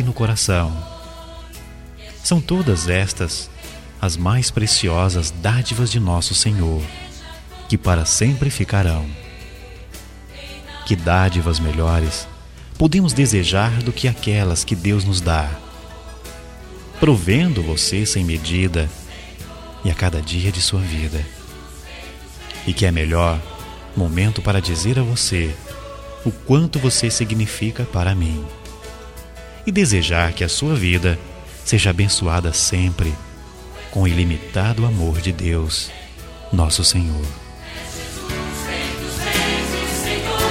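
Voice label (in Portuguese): Evangélica Mensagem pra Amizade, male, telemensagens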